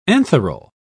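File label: "antheral", British English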